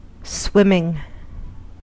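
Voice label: "swimming", American English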